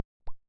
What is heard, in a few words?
bilabial Click